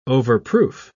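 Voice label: "overproof", British English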